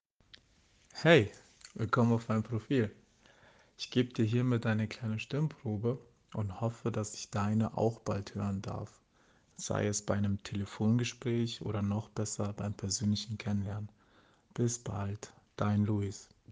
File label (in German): Stimmprobe deutsch